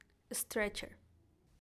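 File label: "stretcher", English; DOCTOR SET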